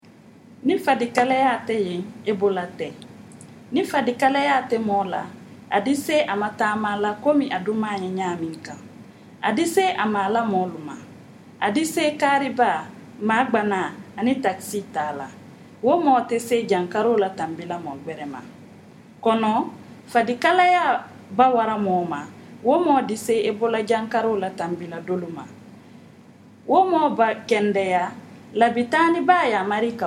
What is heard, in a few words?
malinke; ebola; radio-spots; vhf